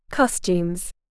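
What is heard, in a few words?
"costumes", English word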